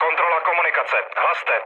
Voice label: chat, speech Czech; War Thunder